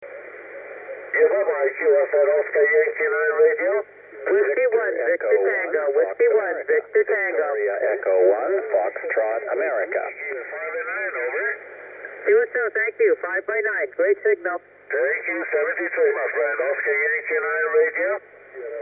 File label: 12m ssb